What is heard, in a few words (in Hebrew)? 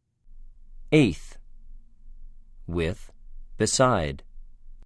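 "אֵת - אֶת־", Hebrew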